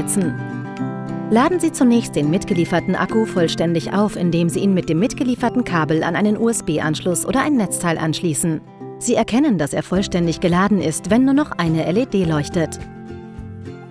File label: Explainer Ring Door View Cam